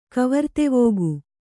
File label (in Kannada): "ಕವರ್ತೆವೋಗು", Kannada